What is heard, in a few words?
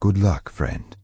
Night Elf Male Standard Farewell